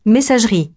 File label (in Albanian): "messagerie", frengjisht